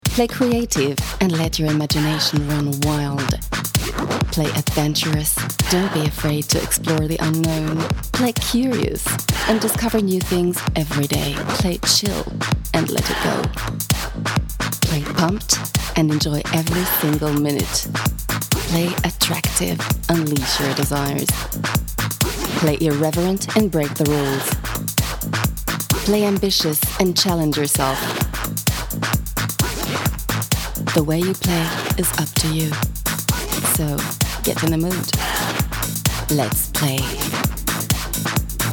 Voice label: Manifesto English; Klepierre